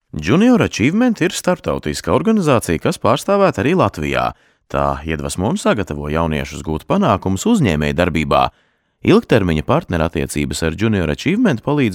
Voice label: Letonca Erkek, seslendirme; erkek sesler

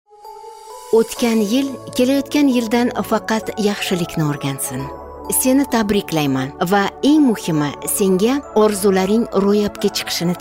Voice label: Ozbekce Kadin, seslendirme; kadin sesler

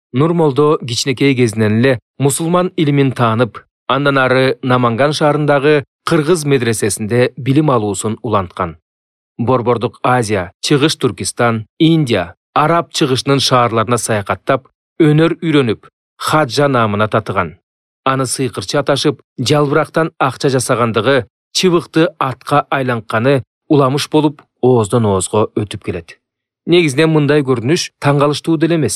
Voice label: Kirgizca Erkek

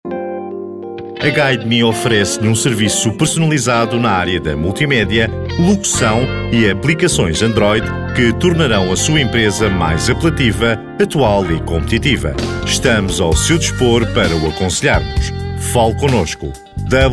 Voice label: Portekizce Erkek Portekiz; portekizce seslendirme; erkek sesler

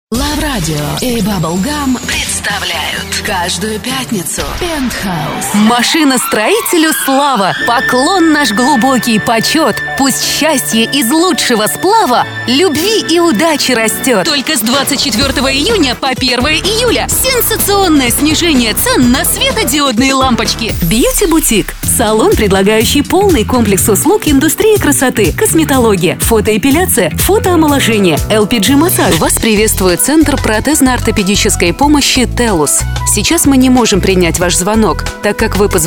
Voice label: Ukraynaca Kadin, seslendirme; kadin sesler

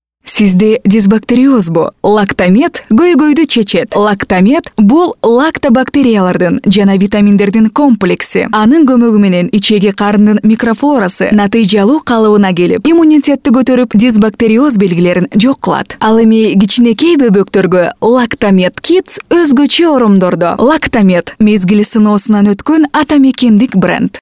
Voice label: Kirgizca Kadin

